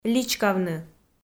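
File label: "личкавны", Komi, female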